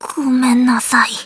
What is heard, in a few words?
sorry, voice commands; svencoop; Blanc